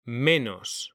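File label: "menos", Spanish; mekanta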